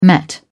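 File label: "met", English, British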